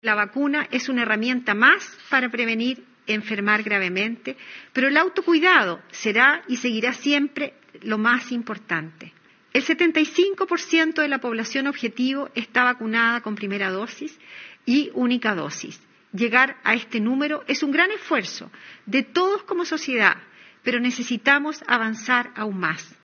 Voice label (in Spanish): cuña